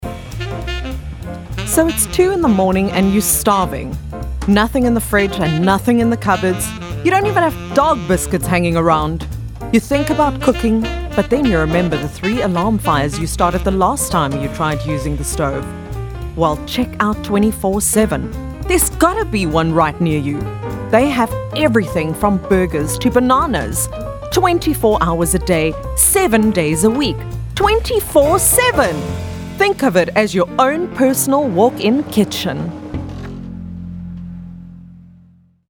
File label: Medium Sell More Upbeat